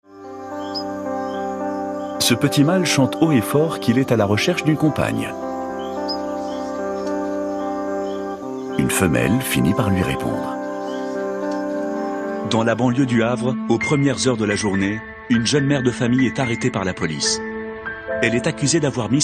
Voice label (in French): narrations